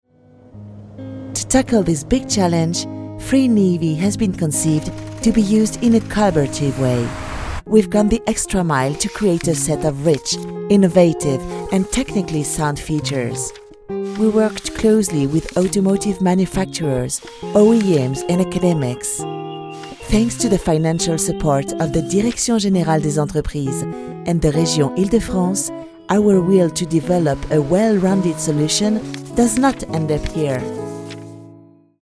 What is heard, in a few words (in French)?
ANGLAIS Institutionnel Doux explicatif; FREENIVI